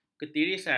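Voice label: "ketirisan", Malay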